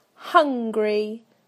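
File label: "hungry", British English; PictureDictionary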